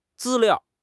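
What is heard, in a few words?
"zi1 liao4", Chinese; 汉语口语新时代-初级下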